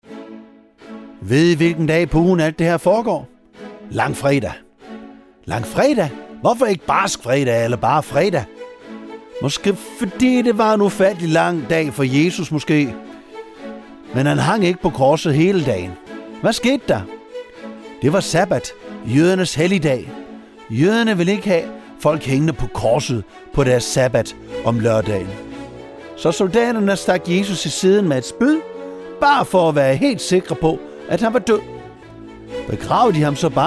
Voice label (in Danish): drama med speak; 12 Opstanden